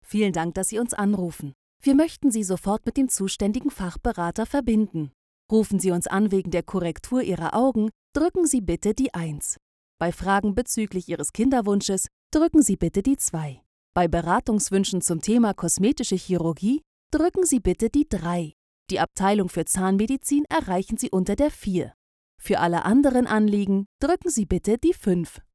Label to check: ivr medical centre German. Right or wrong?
Right